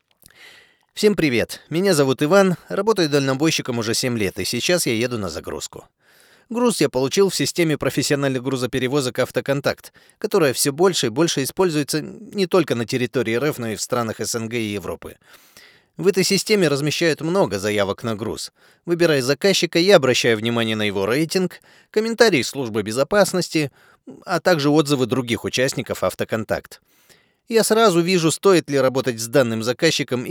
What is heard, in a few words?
russian automotive